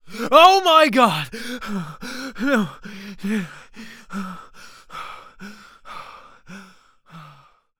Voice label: Panic; zps; Humans; HumanMale-01